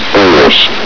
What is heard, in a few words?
"force", Combine soldier; hl2